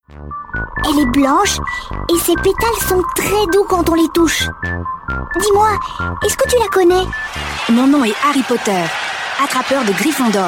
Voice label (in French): Montage Voix Enfants